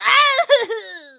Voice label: hit, en; Cookie Run

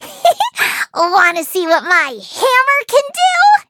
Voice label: eng menu tap; bacon roll; cookierunwiki

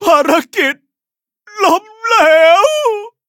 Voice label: defeat, th; cookie run

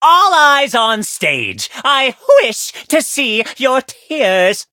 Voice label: battle, en; Cookie Run

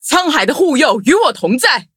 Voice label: battle, zh-hant

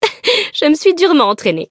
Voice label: levelup, fr; cookie run

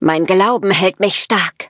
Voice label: loading, de; Cookie Run

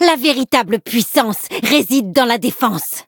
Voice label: levelup, fr; cookierun

